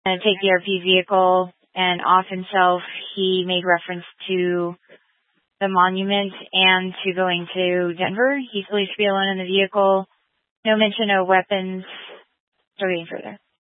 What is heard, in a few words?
Police Dispatch, scanner; Police 1 Dispatch